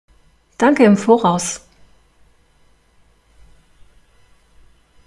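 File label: pronunciation danke im voraus, German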